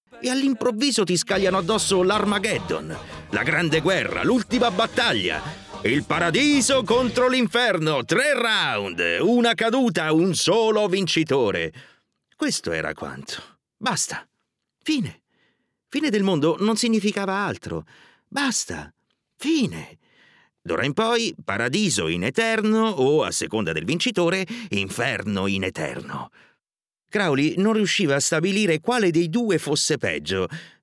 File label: italian audiobooks